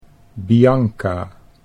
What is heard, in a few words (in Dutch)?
"Bianca", Dutch